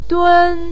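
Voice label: "dun" first tone, Mandarin Chinese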